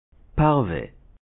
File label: "Parve", Hebrew